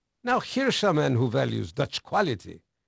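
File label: Dutch male, voice; Pirates Of The Caribbean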